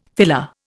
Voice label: "filler", Indian

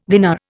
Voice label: "dinar", Indian

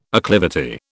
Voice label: "acclivity", British

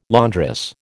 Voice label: "laundress", American

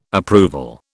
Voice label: "approval", British

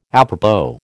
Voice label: "apropos", American

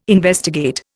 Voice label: "investigate", Indian

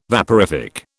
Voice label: "vaporific", British

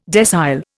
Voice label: "decile", Indian

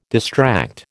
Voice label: "distract", American

